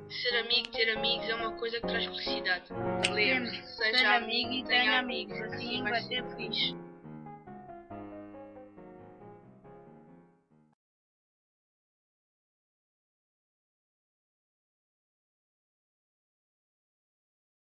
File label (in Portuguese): Anuncio a Amizade